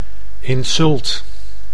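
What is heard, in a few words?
"insult", Dutch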